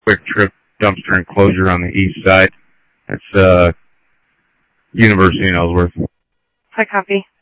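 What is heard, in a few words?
Simulcast police department, scanner audio trunk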